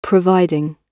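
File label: "providing", British English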